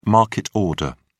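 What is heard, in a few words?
"market order", English, British English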